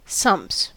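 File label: "sumps", US English; pronunciations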